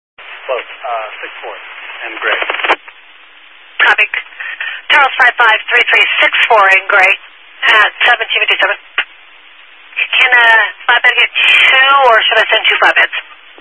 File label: Highway Patrol, scanner